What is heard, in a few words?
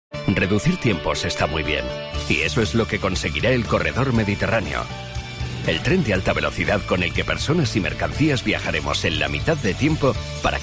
male, advertising